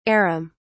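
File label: "arum", British English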